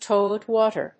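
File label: "toilet water", English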